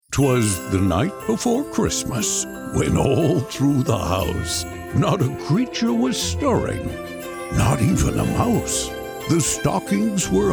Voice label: Santa voice

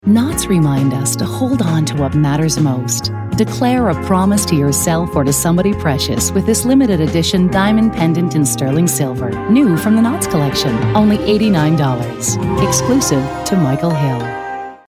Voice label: Commercial Luxury sexy rich refined opulent; Michael Hill Jewelers; luxurious

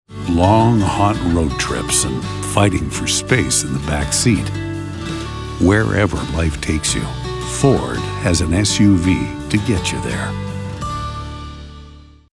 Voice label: commercial SUV storyteller folksy; Ford

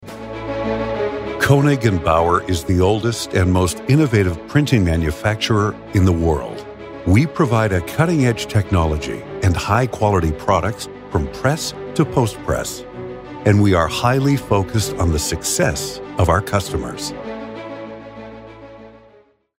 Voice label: narration corporate credible; Koenig Bauer

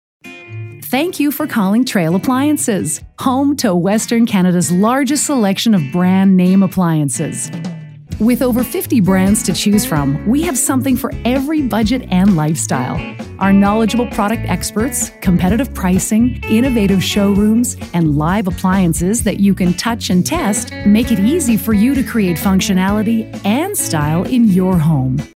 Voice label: IVR Voicemail teleprompt call waiting answering; Trail Appliances; answering machine

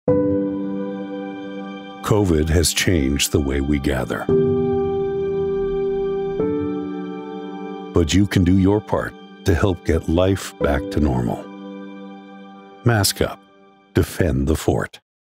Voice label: Commercial serious insipirational hopeful; COVID

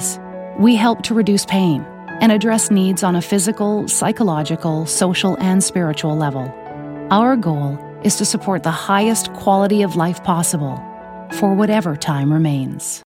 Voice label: Commercial Hopeful sincere caring empathy; Hospice

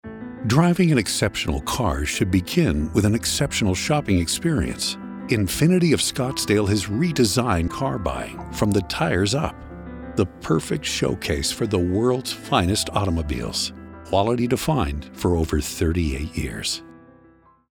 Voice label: luxury sophisticated rich; Infiniti of Scottsdale

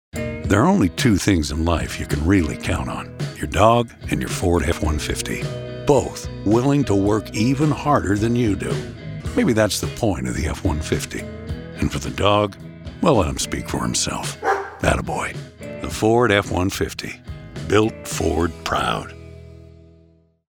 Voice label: folksy Grit comfort; F150 and a Dog National; truck

